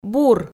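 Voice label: "бур", Komi, female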